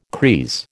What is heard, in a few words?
"creese", American